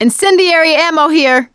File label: incend ammo, voice; survivor; producer